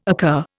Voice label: "occur", Indian